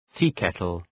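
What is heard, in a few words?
"teakettle", English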